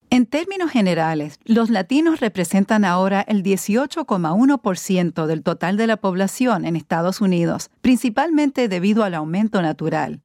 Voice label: Hispanic Informational Narration

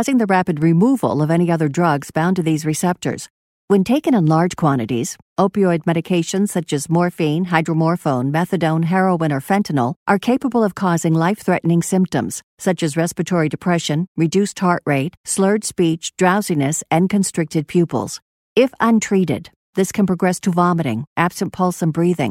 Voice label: Naloxone Flat Read

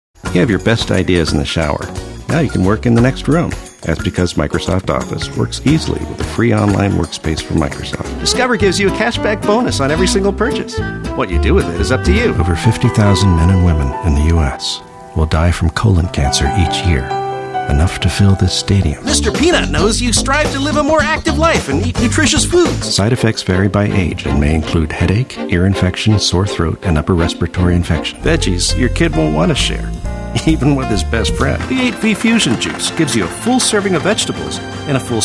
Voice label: Commercial Male

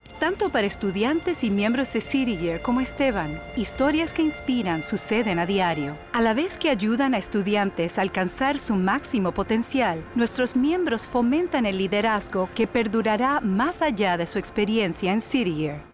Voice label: Spanish PSA; City Year

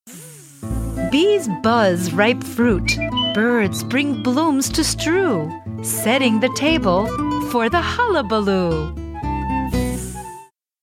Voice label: Audiobook; Delicious Hulaballoo

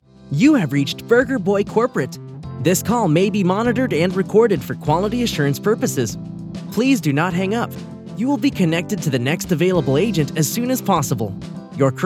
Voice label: IVR

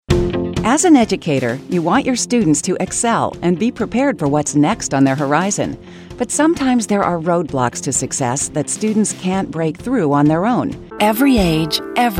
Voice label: Commercial

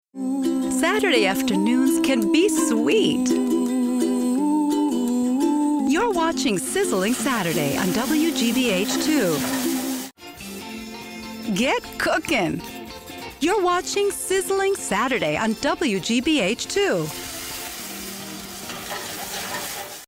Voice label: Promo; WGBH Sizzling Saturdays

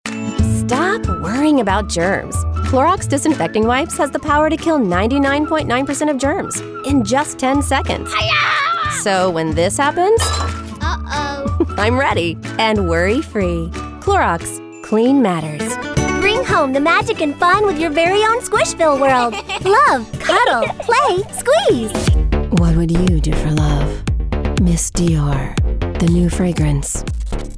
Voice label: Commercial

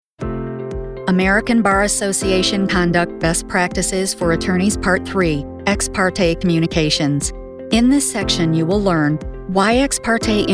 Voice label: Legal E-Learning; Corporate; E-Learning Demo

